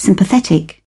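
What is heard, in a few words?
"sympathetic", British English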